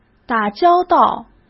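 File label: "打交道", Mandarin Chinese; hsk; chinese-flashcards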